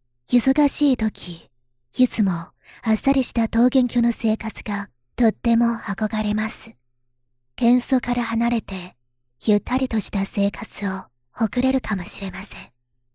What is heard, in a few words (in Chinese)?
温柔旁白